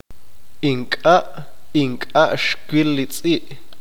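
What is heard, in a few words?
"no no vió el perro", Q'eqchi'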